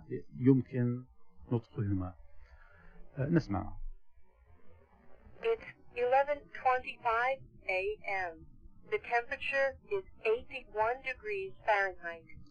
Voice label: alarm clock, ساعة منبه ناطقة مع الإعلان عن درجة الحرارة; الناطق للتكنولوجيا